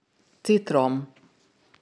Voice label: "citrom", Hungarian; hungarian fruit flashcards